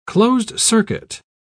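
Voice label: "closed-circuit", British English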